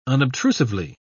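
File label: "unobtrusively", British English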